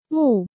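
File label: "Mù", Chinese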